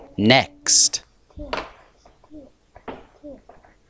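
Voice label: "staff", spoken word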